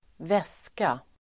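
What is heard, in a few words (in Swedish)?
"väska", Swedish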